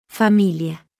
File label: "familia", Spanish